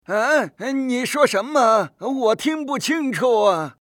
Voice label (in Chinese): 男 游戏角色配音